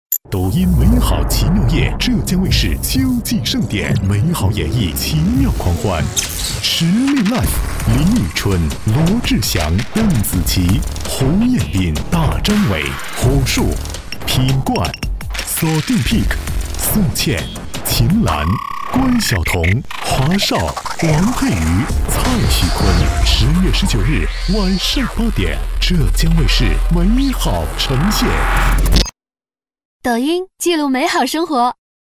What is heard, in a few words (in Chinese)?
男 风格 动感 浑厚 大气; 抖音美好奇妙夜